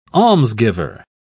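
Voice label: "almsgiver", British English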